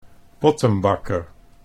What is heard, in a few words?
"pottenbakker", Dutch